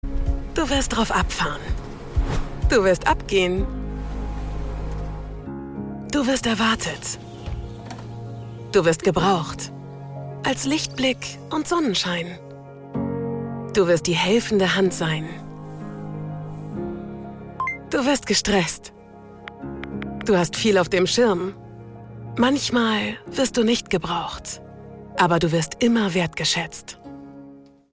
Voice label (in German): Frau OFF